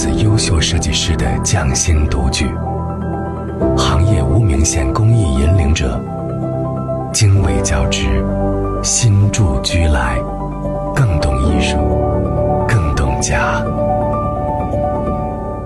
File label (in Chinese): 男 中文 宣传片 磁性